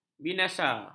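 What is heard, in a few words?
"binasa", Malay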